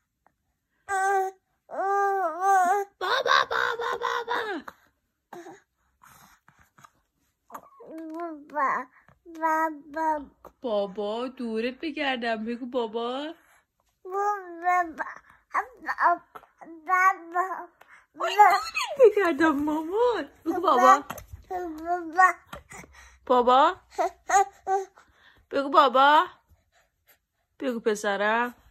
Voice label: baby saying daddy